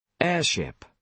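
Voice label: "airship", British English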